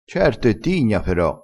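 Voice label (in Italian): "e tigna", vocabolario dialettale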